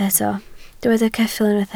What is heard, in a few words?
"ceffyl", Welsh